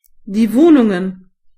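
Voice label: flat, German voices words; gcompris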